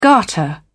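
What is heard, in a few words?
"garter", British English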